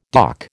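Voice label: "doc", American English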